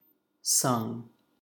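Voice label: "sung", US English; pronunciations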